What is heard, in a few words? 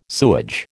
"sewage", American